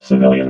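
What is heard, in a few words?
"civilian", robot voice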